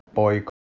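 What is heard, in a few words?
"poik", Proto-Indo-European; eastern origins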